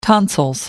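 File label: "tonsils", British English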